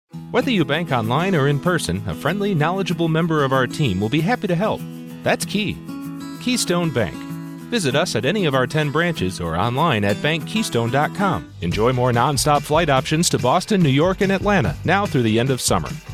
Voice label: Commercial, commercial voiceover

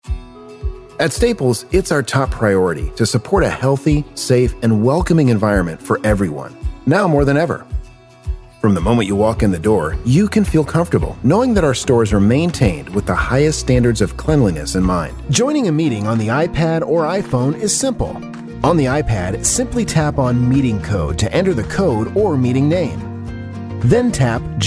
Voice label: narration; Narration, Whiteboard/Explainer, Corporate

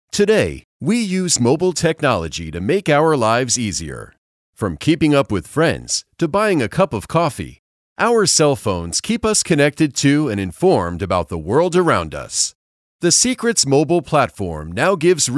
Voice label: explainer, commercial voiceover; Commercial Voiceover, TV and Radio

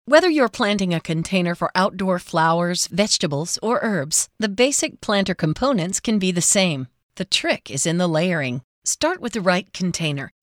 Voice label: eLearning Female; commercial voiceover

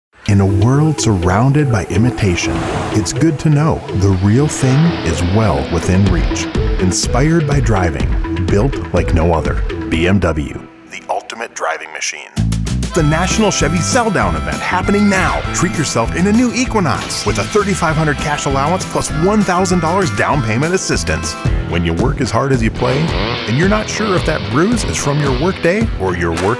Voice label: Commercial Auto-Dealer Male; Commercial Voiceover, Auto Dealer

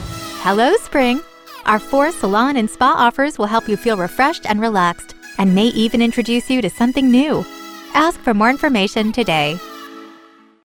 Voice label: ivr spring, commercial voiceover; Phone Hold/IVR

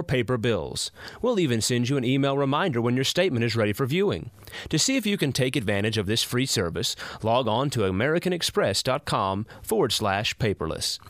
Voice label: Phone Hold IVR Male

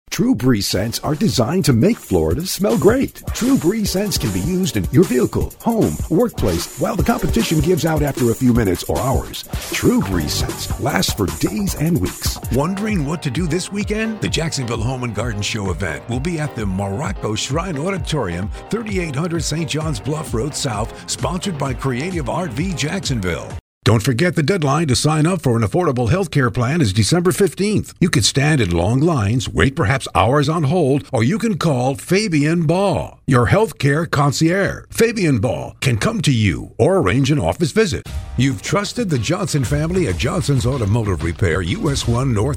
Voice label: Commercial Male, Husky, Expressive, Announcer; TV and Radio; Commercial Voiceover

